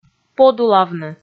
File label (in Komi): "подулавны", Komi